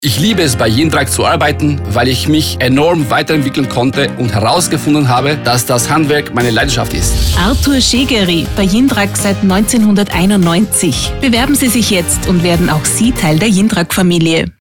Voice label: SPOT